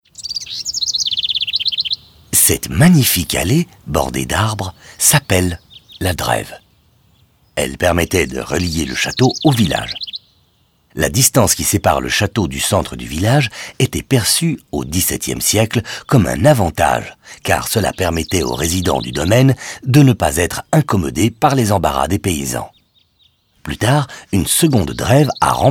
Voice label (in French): audioguide fr enfant; modave